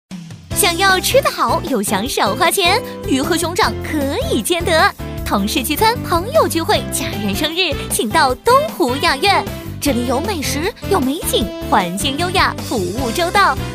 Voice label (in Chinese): 电台 欢快; 东湖雅苑